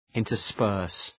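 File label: "intersperse", English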